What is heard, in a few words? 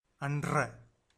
"anrra", Tamil